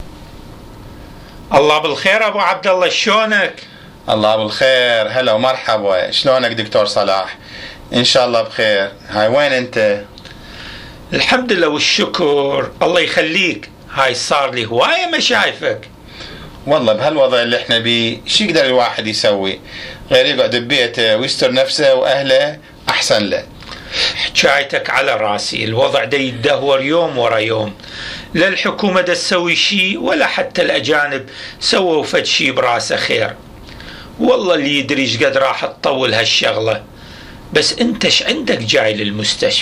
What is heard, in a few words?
Dialogue in the hospital, Iraqi Dialect